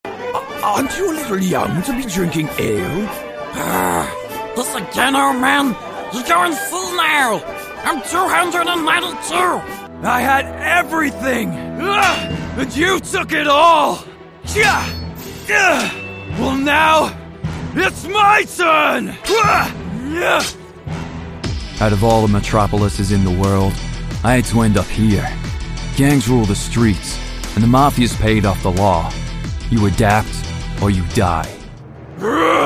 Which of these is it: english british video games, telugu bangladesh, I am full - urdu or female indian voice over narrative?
english british video games